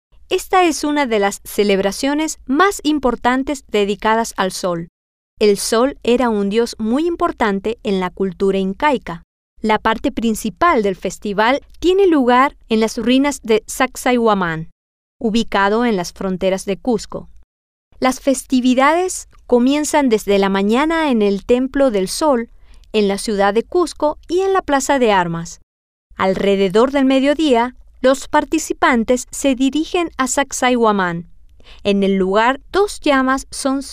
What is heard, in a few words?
Spanish; inti Raymi